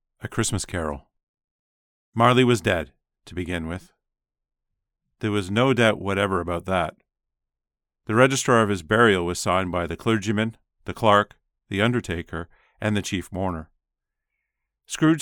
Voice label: foreigner